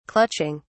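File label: "clutching", English, British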